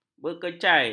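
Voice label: "berkecai", Malay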